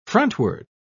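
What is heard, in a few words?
"frontward", British English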